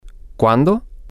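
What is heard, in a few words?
when, Spanish, male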